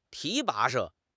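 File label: "提拔", Mandarin Chinese; HSK6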